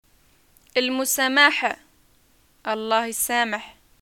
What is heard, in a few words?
greeting eid and response, arabic